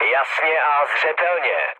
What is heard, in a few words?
chat, speech Czech; War Thunder